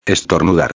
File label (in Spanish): "estornudar", espanhol; palavra do dia